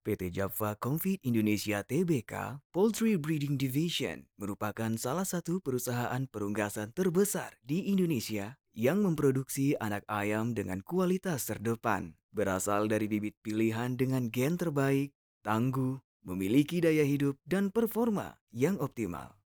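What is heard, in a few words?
Indonesian formal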